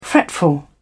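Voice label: "fretful", British English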